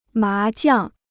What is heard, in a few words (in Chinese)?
"麻将", Chinese